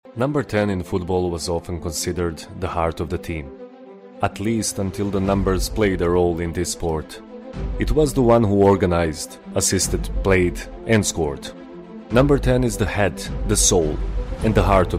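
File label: male, English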